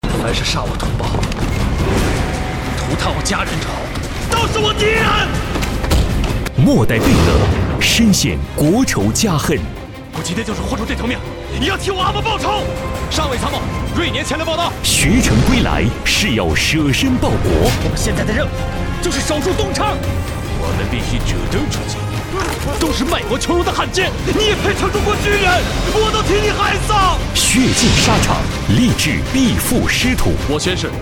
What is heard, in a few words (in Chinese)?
男, 片花; 河南电视剧频道; 真好剧场